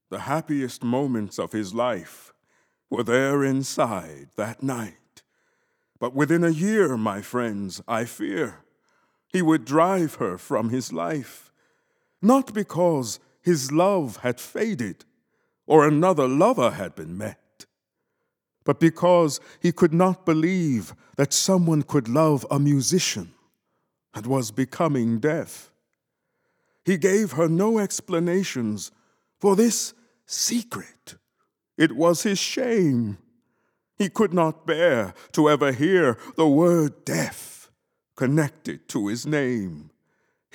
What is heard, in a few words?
narration